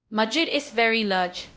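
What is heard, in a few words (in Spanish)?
Voice Acting, es, female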